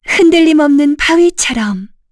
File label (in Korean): Vox Skill, kr; voices; heroes; Yuria; kings raid